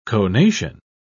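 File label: "conation", English, British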